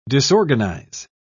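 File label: "disorganize", English, British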